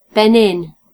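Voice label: "benin", British English; gcompris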